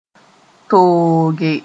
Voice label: "tooge", Mooré